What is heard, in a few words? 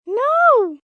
"No" High, child; Response